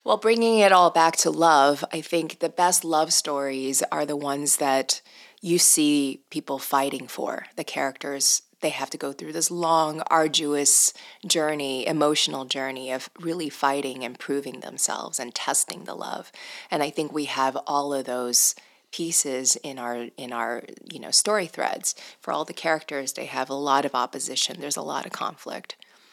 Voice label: Natural Voice